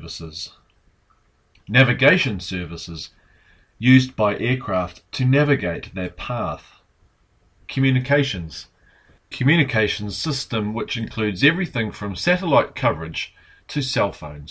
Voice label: standard air traffic control